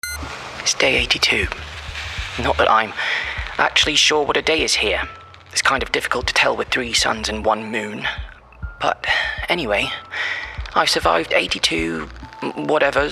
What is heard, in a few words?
Gaming Teenager RP Diary; Gaming Character